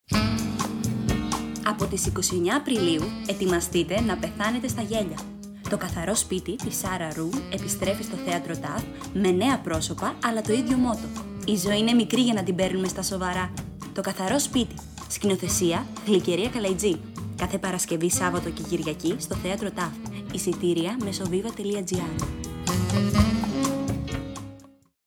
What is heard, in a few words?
radio spot; ΤΟ ΚΑΘΑΡΟ ΣΠΙΤΙ